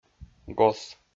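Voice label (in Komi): "гос", Komi, male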